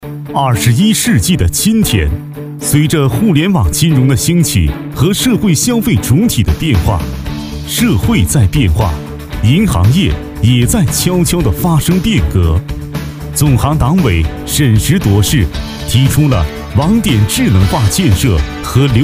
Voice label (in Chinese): 男, 专题, 大气浑厚宣传片